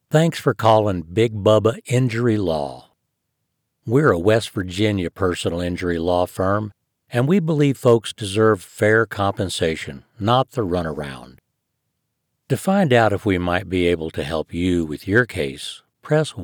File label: WV Appalachian Brand Voice; Big Bubba